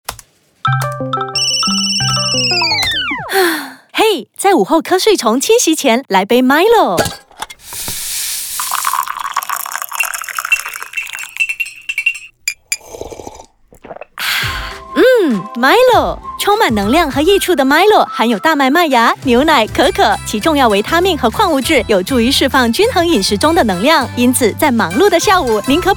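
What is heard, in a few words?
Mandarin Character VO Compilation, fun, playful, animated to conversational role play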